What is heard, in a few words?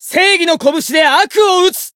variable chant, jp